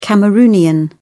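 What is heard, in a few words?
"cameroonian", British English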